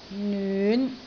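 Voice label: "nyun5", Cantonese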